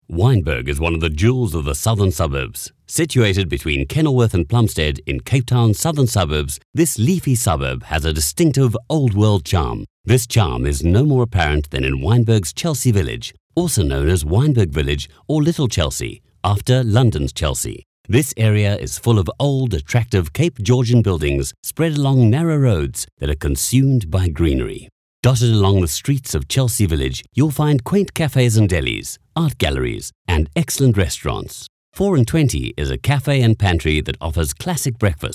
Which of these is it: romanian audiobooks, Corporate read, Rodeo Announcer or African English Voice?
Corporate read